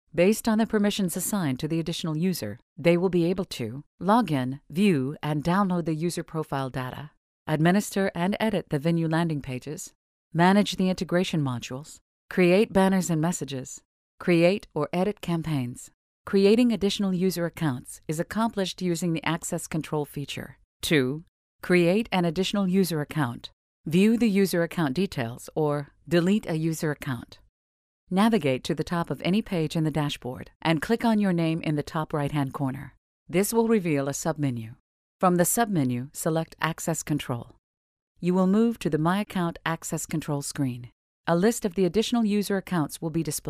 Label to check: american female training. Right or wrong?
Right